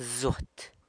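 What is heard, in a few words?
"zuat", bone, San Lucas Quiaviní Zapotec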